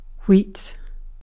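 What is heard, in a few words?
"wheat", British English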